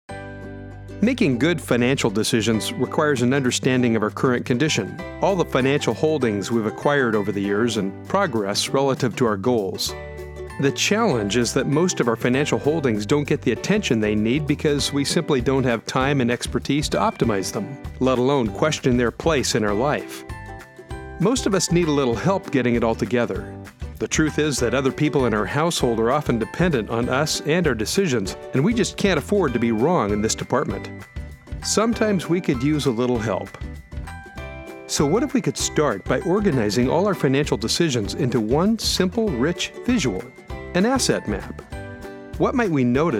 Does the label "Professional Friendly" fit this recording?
No